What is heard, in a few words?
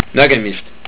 So long, estonian